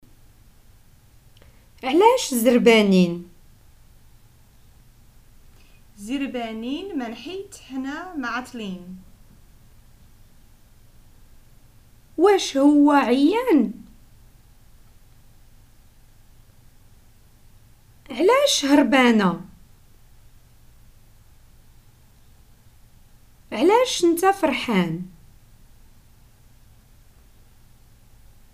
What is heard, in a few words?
prompts, Moroccan Arabic